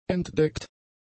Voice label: "entdeckt", German; adjectives